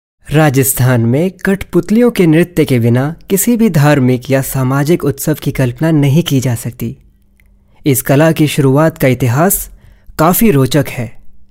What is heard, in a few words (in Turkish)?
Hintce Erkek; seslendirme